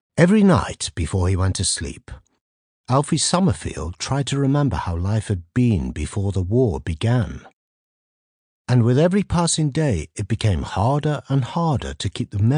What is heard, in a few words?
audio book; stay where you are